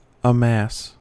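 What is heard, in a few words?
"amass", US English; pronunciations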